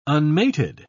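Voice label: "unmated", English, British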